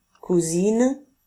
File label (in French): "cousine", famille